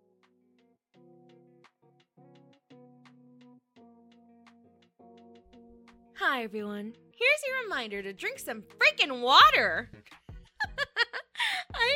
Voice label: Positive Message, asmr